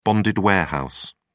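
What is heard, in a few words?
"bonded warehouse", British English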